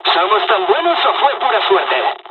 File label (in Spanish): dialog, dialogs spanish; midway; War Thunder